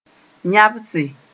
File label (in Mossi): "yãbse", moore; to make sb. cry